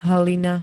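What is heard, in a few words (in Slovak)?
"hlina", Slovak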